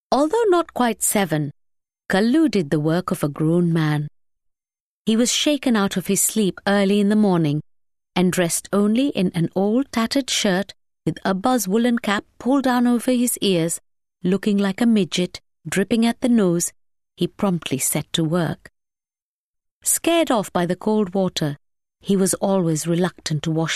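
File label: drama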